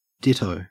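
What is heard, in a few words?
"ditto", Australian English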